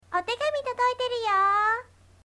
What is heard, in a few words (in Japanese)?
shoujo